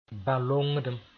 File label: "bã-longdem", Mooré; contagious disease